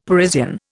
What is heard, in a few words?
"Parisian", Indian; Dictionary